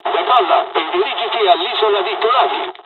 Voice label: mission fly, dialogs italian; cors mission; War Thunder